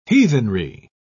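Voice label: "heathenry", British English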